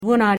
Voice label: "wunnert", Dutch pronounce vowels; u in put